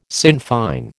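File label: "Sinn Fein", American English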